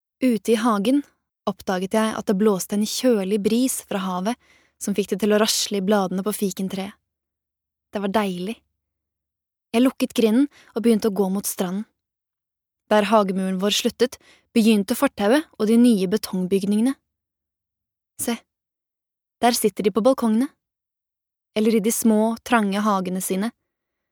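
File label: Norwegian audio book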